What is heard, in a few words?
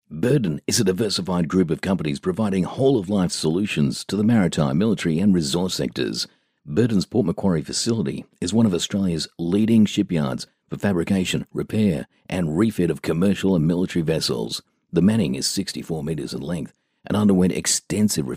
male australian voice over narrative